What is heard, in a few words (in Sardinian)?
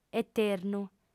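"eternu", female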